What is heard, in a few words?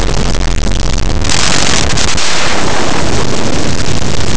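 "nieve", Spanish; laboratori fonetica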